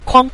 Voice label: "kuang", Mandarin; kuangkuang